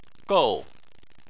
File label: "gou4", Chinese